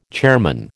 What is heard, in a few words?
"chairman", American